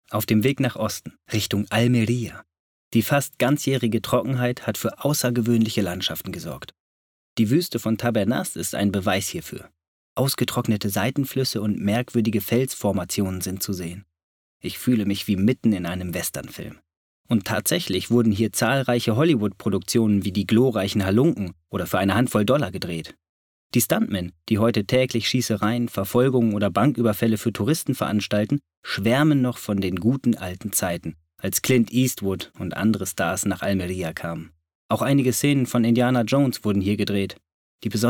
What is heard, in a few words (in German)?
doku